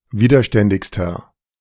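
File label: "widerständigster", German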